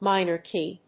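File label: "minor key", English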